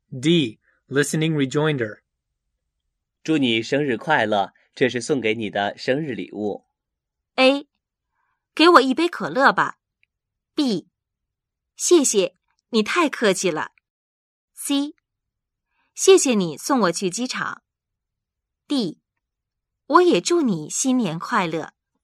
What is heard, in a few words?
narrative